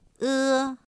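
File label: "ē", Chinese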